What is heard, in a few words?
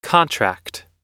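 "contract", US English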